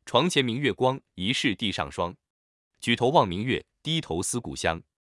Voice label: chinese poem